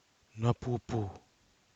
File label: "nuapupu", Nafe (Kwamera)